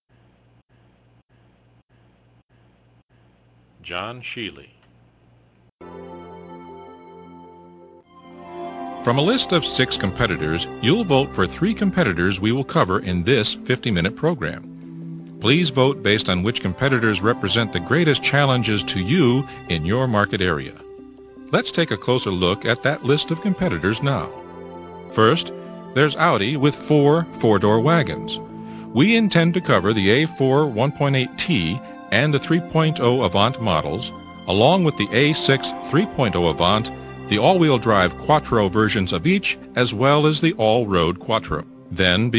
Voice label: Narration, Male voices